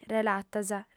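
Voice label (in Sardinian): "relatas", female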